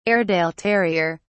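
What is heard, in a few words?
"airedale terrier", British English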